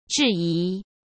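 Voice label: "质疑", Mandarin Chinese; chinese-flashcards; hsk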